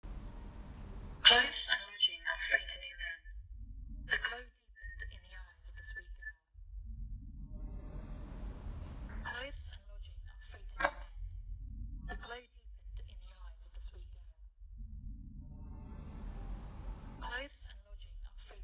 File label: aec test, acoustic echo cancellation; esp32s3